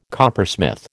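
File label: "coppersmith", American English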